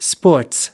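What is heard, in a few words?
"sports", American English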